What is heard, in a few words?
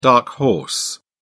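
"dark horse", British English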